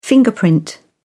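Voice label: "fingerprint", British English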